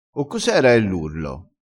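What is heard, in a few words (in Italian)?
"sduttissi", dialettale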